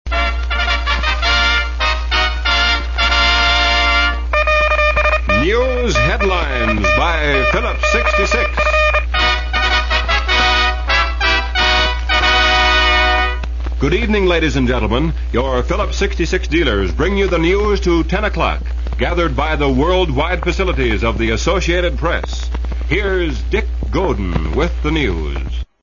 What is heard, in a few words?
News Open; KHQ Spokane; Phillips 66